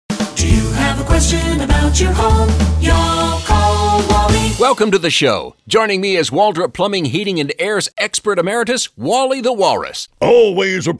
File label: Duct Cleaning Insights AC, radio ads